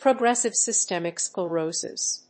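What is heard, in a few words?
"Progressive Systemic Sclerosis", English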